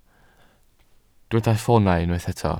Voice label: "ffoonau", Welsh

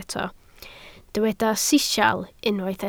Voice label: "sisial", Welsh

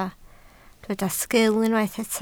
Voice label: "sgil", Welsh

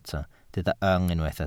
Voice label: "yng", Welsh

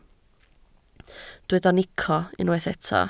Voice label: "nico", Welsh

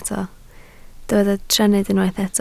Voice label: "trennydd", Welsh

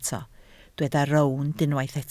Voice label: "rownd", Welsh

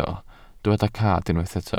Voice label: "cad", welsh

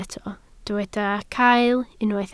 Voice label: "cael", Welsh

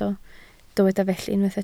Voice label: "felly", Welsh

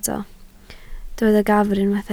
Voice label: "gafr", Welsh

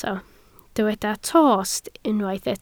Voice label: "tost", welsh

